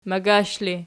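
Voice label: "magash" ergative, Archi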